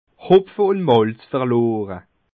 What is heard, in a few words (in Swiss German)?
"hopfe", Bas Rhin